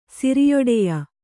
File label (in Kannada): "ಸಿರಿಯೊಡೆಯ", Kannada